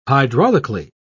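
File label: "hydraulically", British English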